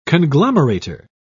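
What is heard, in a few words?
"conglomerator", British English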